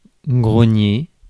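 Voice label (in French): "grogner", French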